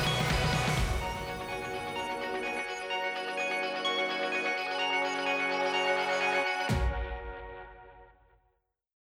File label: Catholic Radio Testimonial